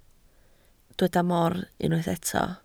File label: "mor", Welsh